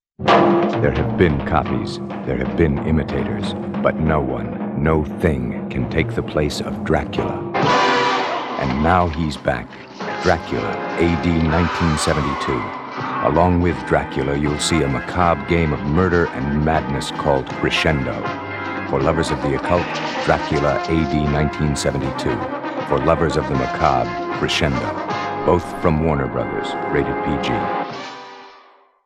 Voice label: Radio Spots; Dracula A.D. 1972; Crescendo